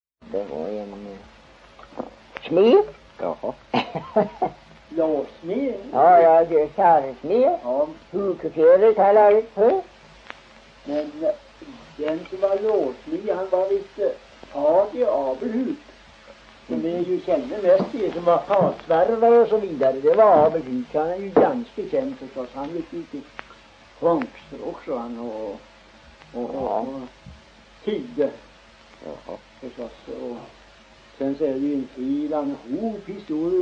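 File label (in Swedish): Sillerud Värmland, dialekter; Folkminnen; Svenska sagor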